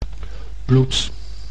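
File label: "bloed", Dutch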